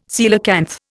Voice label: "coelacanth", Indian

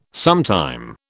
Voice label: "sometime", British

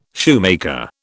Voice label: "shoemaker", British

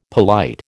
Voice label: "polite", American

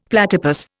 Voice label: "platypus", Indian; Dictionary

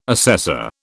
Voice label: "assessor", British

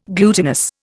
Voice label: "glutinous", Indian

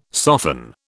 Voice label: "soften", British

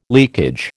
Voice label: "leakage", American

